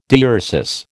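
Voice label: "diuresis", American English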